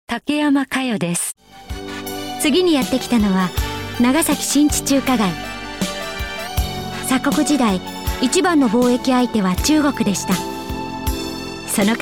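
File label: female travel, English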